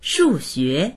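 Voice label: "shu4xue2", Mandarin Chinese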